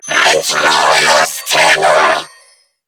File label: Attack Grineer Female; New War; Narmer